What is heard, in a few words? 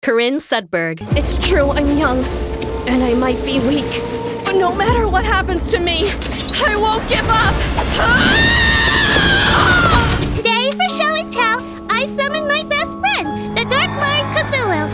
Animation